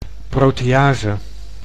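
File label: "protease", Dutch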